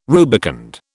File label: "rubicund", British